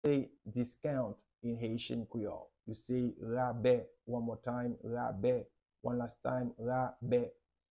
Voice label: How to say Discount in Haitian Creole Rabe pronunciation by a native Haitian Teacher